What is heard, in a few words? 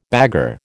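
"bagarre", American English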